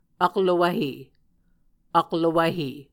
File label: "aklowvhe", Muscogee